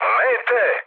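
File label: Serbian enemy detected mood med; speech; War Thunder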